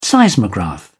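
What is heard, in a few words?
"seismograph", British English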